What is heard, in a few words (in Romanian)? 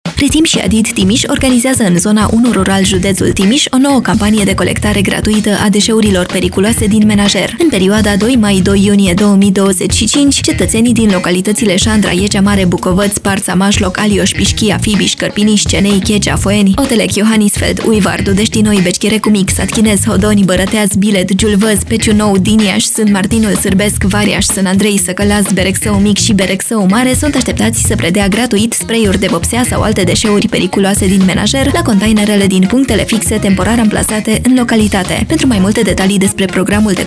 Spot Radio; Periculoase din menajer; Zona 1; campanie colectare zona 1 timisoara